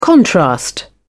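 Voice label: "contrast", British English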